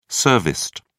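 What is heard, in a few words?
"serviced", British English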